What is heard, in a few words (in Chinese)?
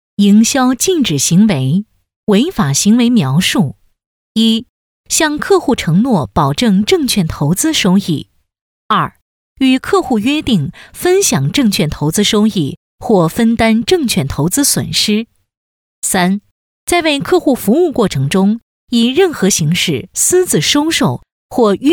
多媒体 培训课件 证券法规课件